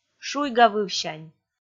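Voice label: "шуйгавывсянь", Komi, female; kpv audio dict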